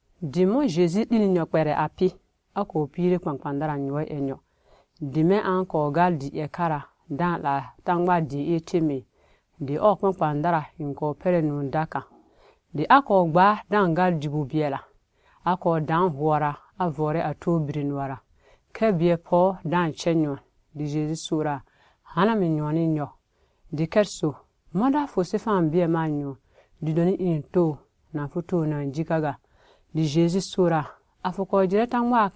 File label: Lobiri; Jeju na Samari Kher; The Samaritan Woman at the Well